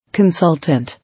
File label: "Consultant", English